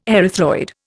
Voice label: "erythroid", Indian